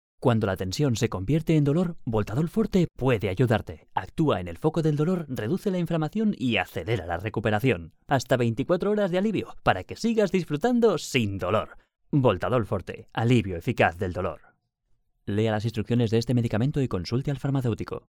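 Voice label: Spanish Spain, male, voice over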